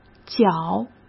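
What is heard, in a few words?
"搅", Mandarin Chinese; hsk; chinese-flashcards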